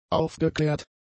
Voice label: "aufgeklärt", German; adjectives